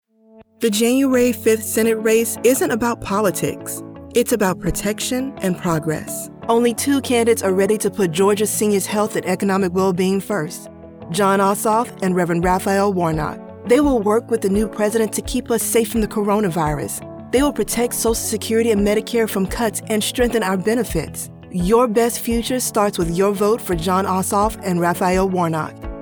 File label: radio ad; GA RUNOFF Dec 2020